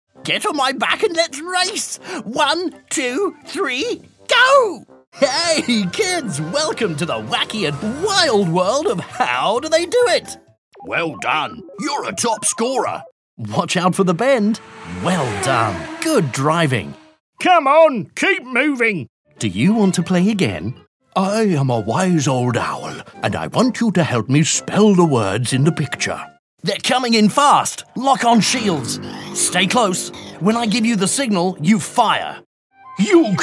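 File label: British English, toys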